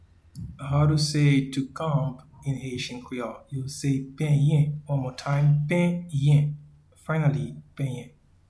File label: to Comb in Haitian Creole Penyen, Pronounce "Penyen" in Haitian Creole audio; “to Comb” (English) translates as “Penyen” in Haitian Creole